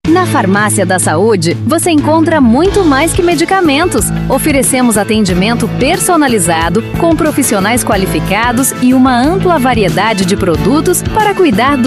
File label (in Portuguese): Spot Simples Farmacia da Saude, spots